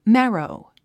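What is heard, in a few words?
"marrow", English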